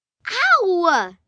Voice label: Pain Disdain Ow, Ow, Ouch, 1 girl, annoyed; VoiceBox

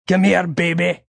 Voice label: Come Here Baby, Male; Sex

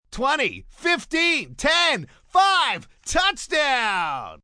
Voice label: Touchdown, announcer, male, football; Talking; Sports, umpire, coach; Voice Box

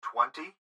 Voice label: Gps Voice, Male; Twenty; Speaker Adult Male; Recorded Voice; Blastwave

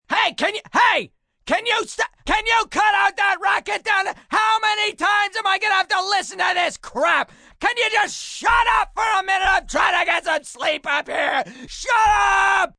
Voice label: Shut Up Ranting, Shut up, male, yelling

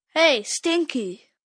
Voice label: Hey Stinky, Attitude, 1 boy, 10 yrs; VoiceBox

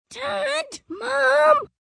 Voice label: Dad Mom Annoyed, child, girl, annoyed; Talking Sound Effects; Kids

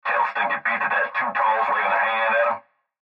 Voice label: Cb Trucker Radio; Cb Radio Male Tell Stinky Pete That Too Tall Is Waving A Hand At Him; Radio Voice; Blastwave

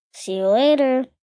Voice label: See You Later, Greeting, 1 boy, 5 yrs; Talking Sound Effects

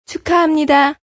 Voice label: Congrats Korean, Female; Talking Sound Effects

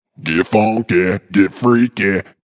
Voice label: Vocal Deep; Get Funky Get Freaky

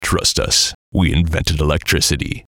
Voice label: VOICE COMICAL; Invented Electricity; Funny Sound; Radio Voice; Blastwave

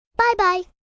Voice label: "Bye bye", Greeting, 1 girl, 5 yrs; Talking

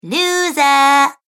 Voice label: Cartoon Girl Loser; Cartoon Voice Sound Effects

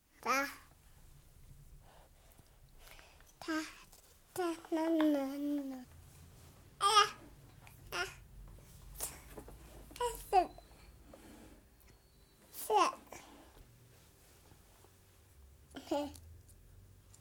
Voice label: 14 month old baby boy, using various words; Babies; Voice; BBC

